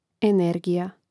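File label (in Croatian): "energija", hrvatski; mreznik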